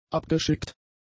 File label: "abgeschickt", German; adjectives